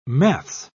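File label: "maths", British English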